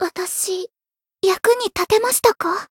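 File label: Voice win, Japanese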